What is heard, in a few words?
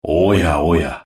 desperation power